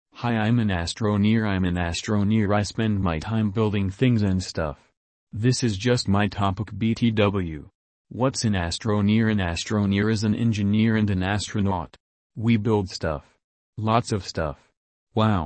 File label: narration